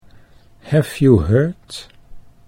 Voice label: hef you hurt, Dutch accent